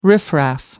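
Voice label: "riffraff", British English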